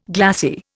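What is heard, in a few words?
"glassine", Indian; Dictionary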